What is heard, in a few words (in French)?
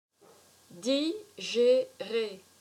"Digerer", french